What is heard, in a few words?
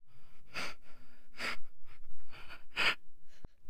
horror "kutiosae"